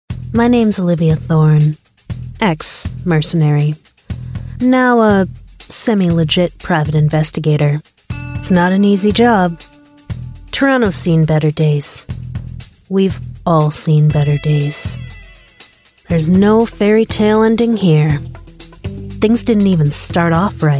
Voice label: US English Character, English US Female